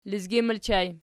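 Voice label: "lezgin" ergative plural, Archi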